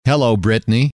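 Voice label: hello, male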